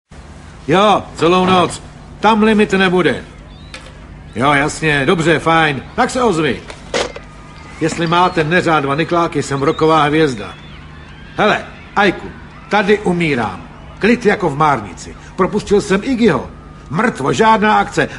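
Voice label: male, Czech, drama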